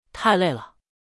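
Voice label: "太累了" Chinese, male; everyone-can-use-english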